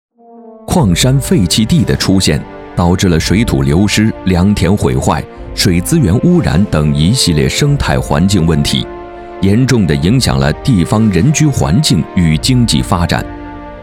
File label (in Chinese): 宣传片